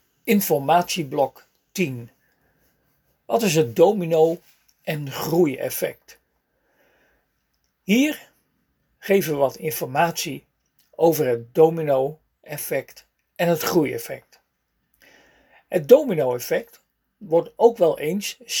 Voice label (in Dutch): spraak instructie